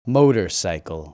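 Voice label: "motorcycle", English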